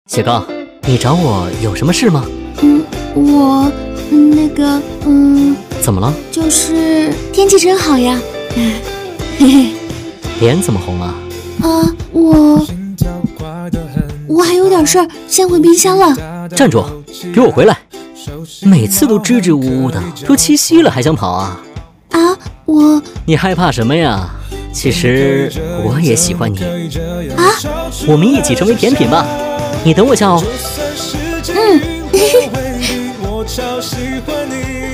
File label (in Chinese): 男 角色 暖男; 抖音摆渡厨房